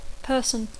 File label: "person", English